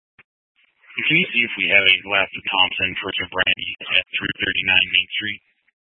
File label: Sheriff Dispatch, scanner; Mesa County